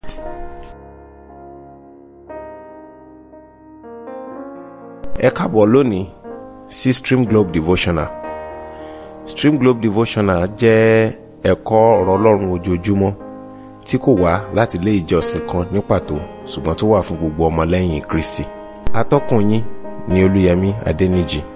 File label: Devotional Audio Yoruba